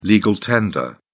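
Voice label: "legal tender", British English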